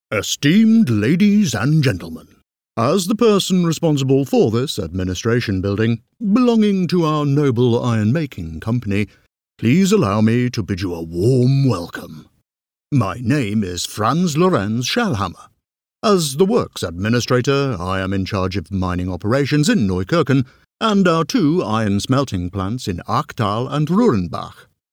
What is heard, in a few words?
audioguide character statue germany; voiceover; audioguides